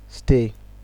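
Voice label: "stay", Nigerian Pidgin, male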